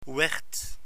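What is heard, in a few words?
"werd", Dutch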